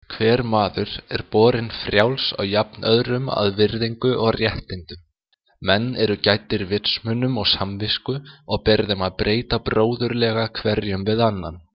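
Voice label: Icelandic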